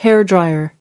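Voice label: "hair dryer", English word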